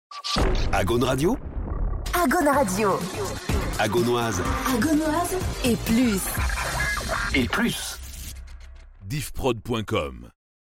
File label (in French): Radio Sweep; Agaune; Agaunoise et plus